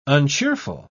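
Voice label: "uncheerful", British English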